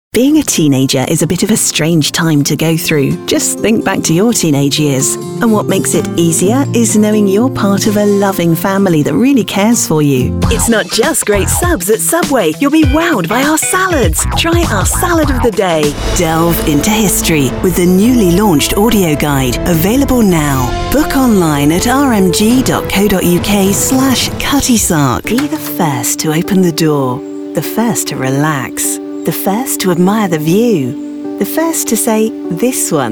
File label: english british commercial